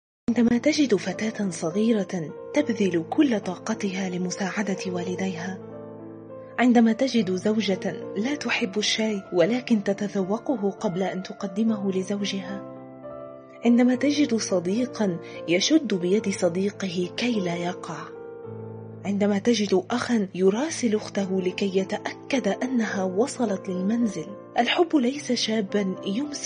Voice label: 阿拉伯语女 外语 小语种 时尚

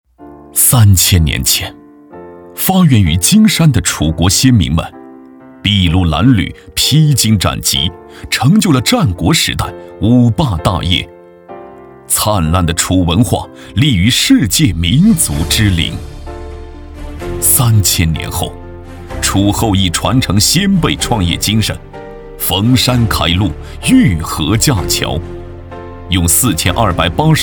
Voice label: 专题 政府 大气, 男; 小康路

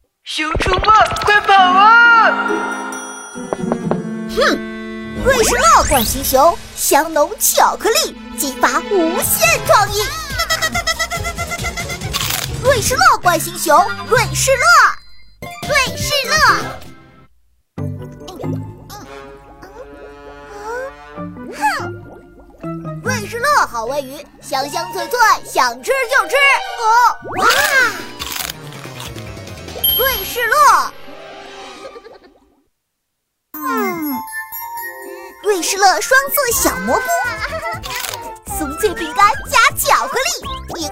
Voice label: 饼干童声多角色广告 可爱; 食品饮料; 瑞士乐饼干童声多角色广告

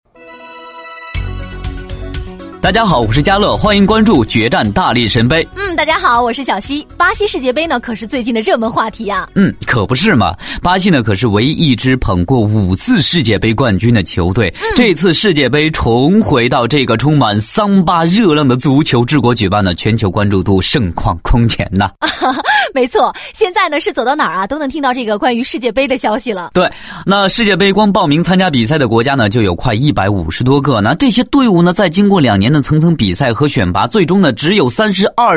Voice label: 广告 促销; 大力神杯

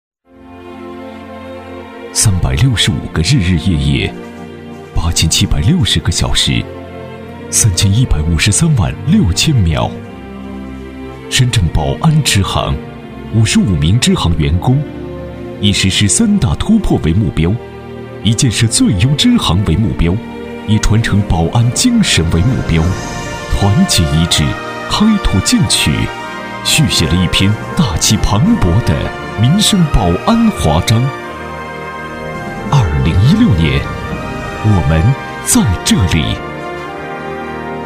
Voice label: 专题 银行 浑厚, 男国; 民生银行深圳支行

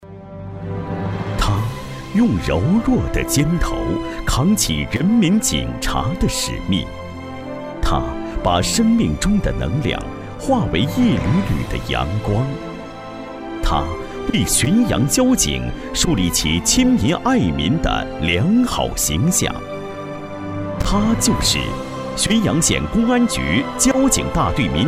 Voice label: 专题 人物 沉稳, 男国; 安康最美民警人物篇

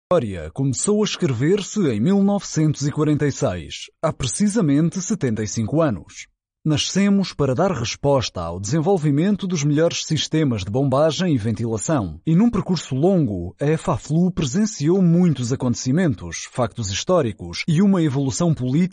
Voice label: 葡萄牙语男 外语 小语种

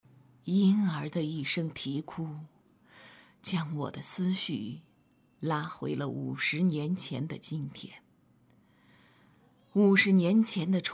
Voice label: 动画 角色 50-60岁女声回忆感觉

